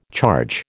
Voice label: "charge", American